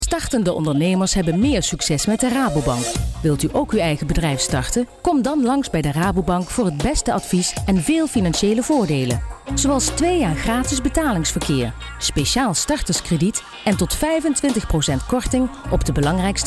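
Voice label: accent female BRABANTS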